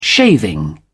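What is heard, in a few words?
"shaving", British English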